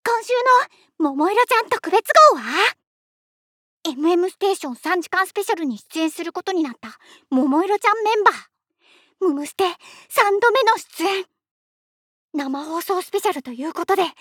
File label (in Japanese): ナレーションバラエティ